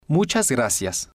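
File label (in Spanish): muchas gracias, Spanish, male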